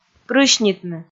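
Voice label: "прысьнитны", Komi, female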